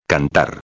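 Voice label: "cantar", Spanish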